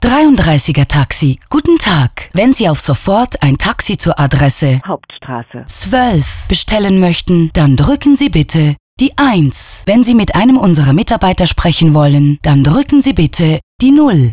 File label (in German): Ansage; 33ertaxi